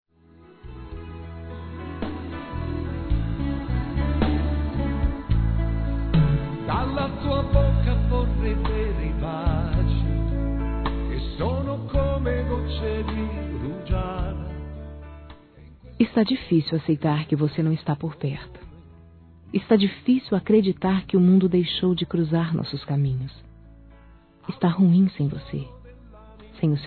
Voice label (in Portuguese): Feminino; Ainda não te esqueci; Telemensagens; Românticas